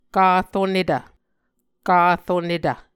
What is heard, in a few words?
"karonetv", Muscogee